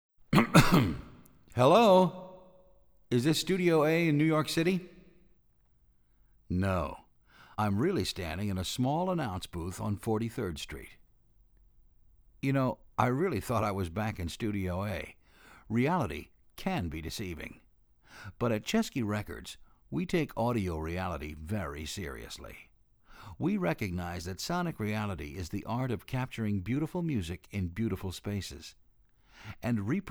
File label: Announcement Artificial Stereo Demonstration